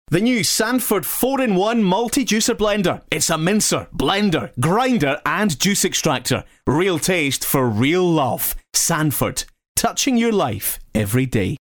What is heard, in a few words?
male scottish voice over sales